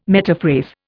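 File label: "metaphrase", English, Indian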